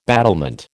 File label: "battlement", American